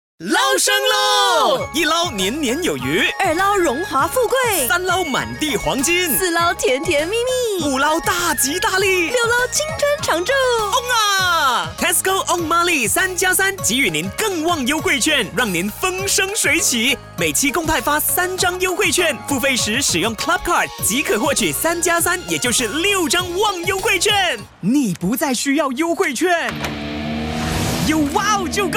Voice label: CHINESE MANDARIN Announcer Energetic OTT

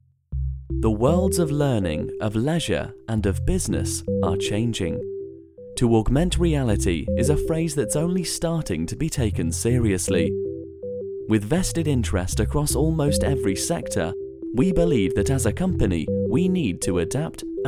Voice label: e-learning

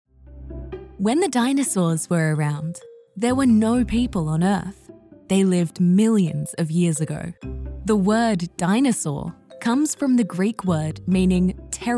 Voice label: Dinosaurs Childrens Education

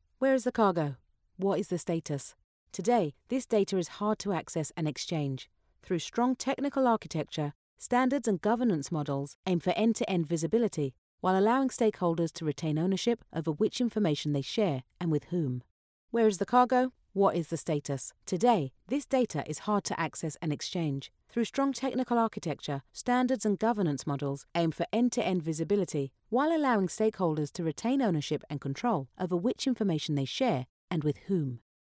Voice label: Logistics Explainer

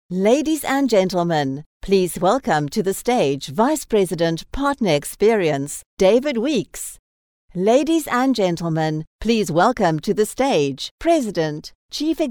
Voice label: Event Announcements

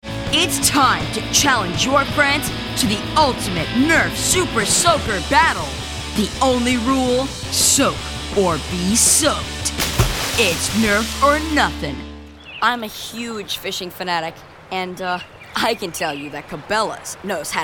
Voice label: Male Teen Voice

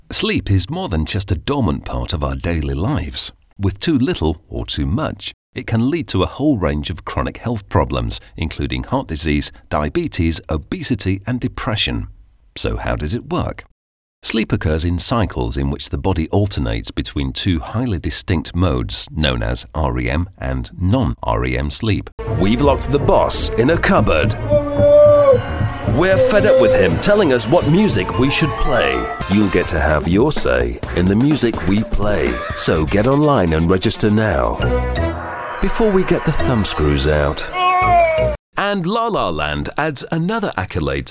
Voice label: MVO

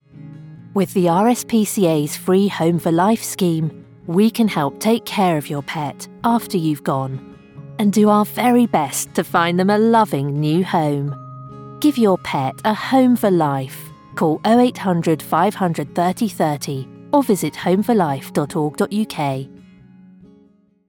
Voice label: commercial radio charity sincere female voice; RSPCA

